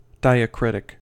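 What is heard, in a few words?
"diacritic", US English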